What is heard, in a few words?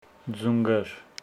Homshetsi knees